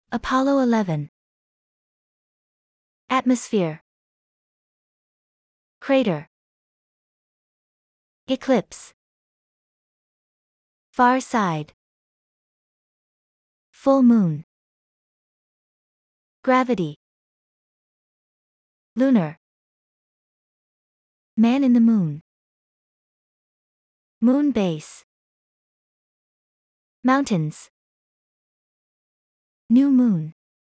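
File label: American; moon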